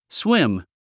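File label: "swim", English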